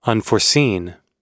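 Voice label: "unforeseen", American English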